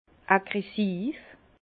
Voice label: "aggressiv", Bas Rhin; vin; agressif

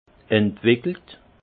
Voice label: "entwickelt", alsace; vin

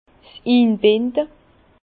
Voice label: "inbinde", Bas Rhin; fond poser le; vin

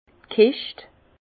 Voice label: "kescht", Bas Rhin; vin; châtaigne

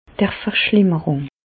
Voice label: "verschlimmerung", Bas Rhin; medecine; aggravation

